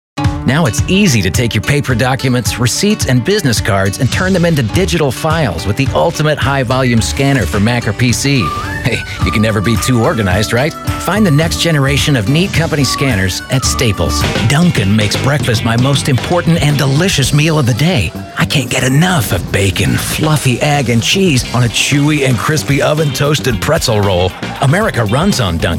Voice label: commercial